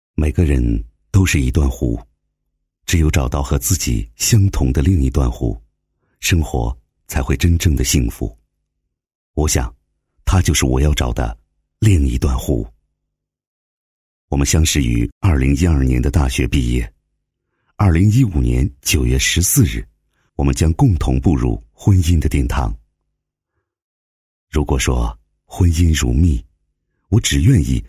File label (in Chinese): 男 专题 婚礼旁白 深情, 国语男声配音, 浑厚